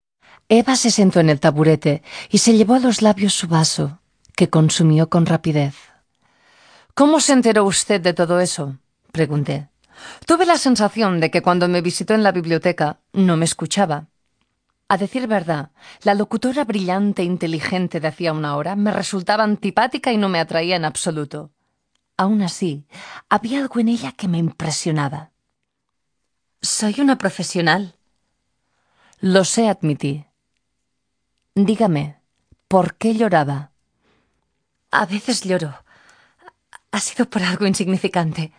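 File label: European Spanish, Narrative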